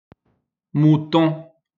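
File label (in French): "mouton", French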